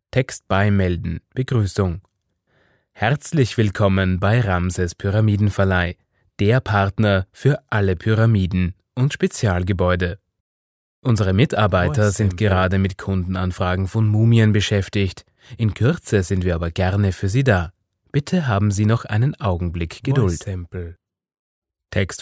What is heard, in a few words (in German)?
Anrufbeantworter